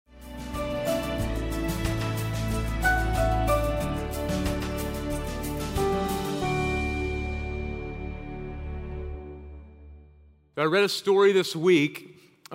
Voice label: Sermon English